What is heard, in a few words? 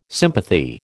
"sympathy", American